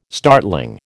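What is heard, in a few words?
"startling", American English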